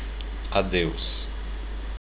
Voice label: goodbye, portuguese